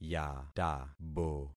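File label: "yatabo", concatenated syllables; Affixing experiment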